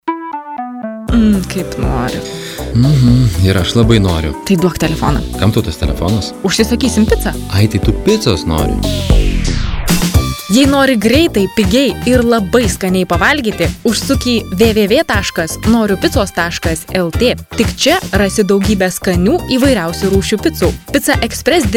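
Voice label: lithuanian female commercial; voice demos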